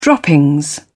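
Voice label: "droppings", British English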